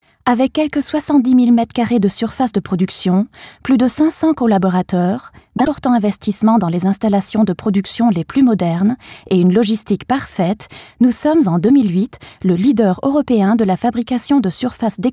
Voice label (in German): imagefilm